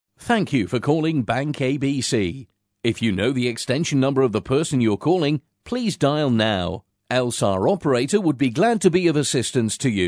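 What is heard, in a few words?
English British male voice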